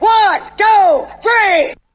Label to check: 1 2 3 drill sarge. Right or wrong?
Right